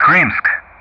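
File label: "Krymsk", English; Ka-50; Callsign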